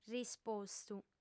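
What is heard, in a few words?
"rispostu", female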